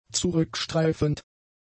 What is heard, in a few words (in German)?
"zurückstreifend", German; adjectives